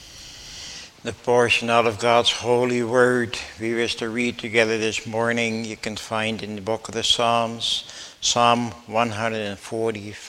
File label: Bible Reading